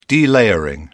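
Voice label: "delayering", British English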